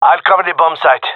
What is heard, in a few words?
guarding bombsite, gendermerie male